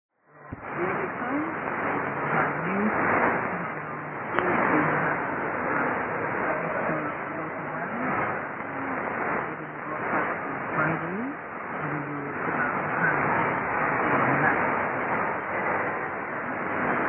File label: 3325kHz; NBC-Buka